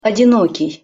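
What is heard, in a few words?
"odinokij", Russian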